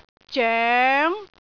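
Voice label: "zoeng2", Cantonese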